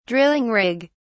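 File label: "drilling rig", British English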